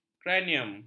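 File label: "kranium", Malay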